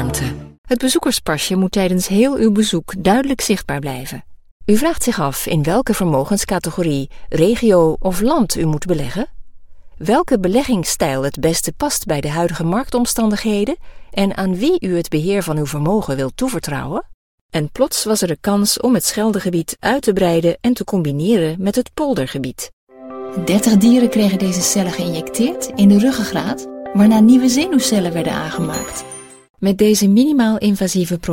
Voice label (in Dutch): Dutch e-learning